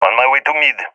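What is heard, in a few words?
on my way mid, balkan; balkan epic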